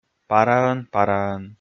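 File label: "параӧн-параӧн", Komi, male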